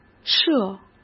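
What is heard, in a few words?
"社", Mandarin Chinese; chinese-flashcards; hsk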